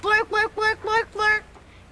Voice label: blurk blurk blurk blurk blurk, gibbrish